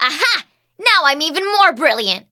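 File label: level up, English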